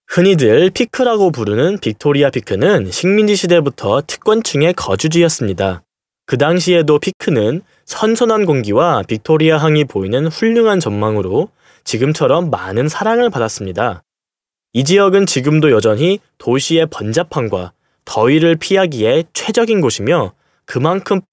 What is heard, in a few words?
Male Korean Other Projects